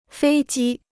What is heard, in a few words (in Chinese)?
"飞机", Chinese